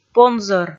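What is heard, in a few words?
"понзӧр", Komi, female; kpv audio dict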